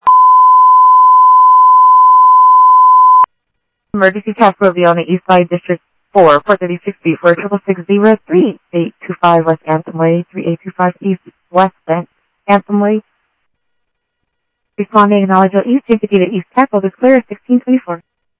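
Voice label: Sheriff East County, scanner audio trunk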